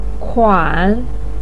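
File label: "kuan", Mandarin pinyin, tone 3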